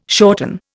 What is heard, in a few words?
"shorten", Indian